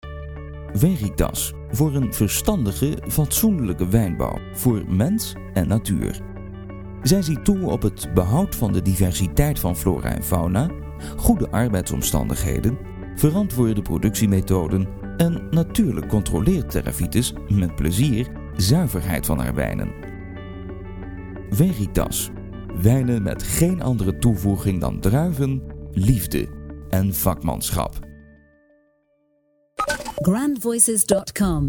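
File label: Dutch male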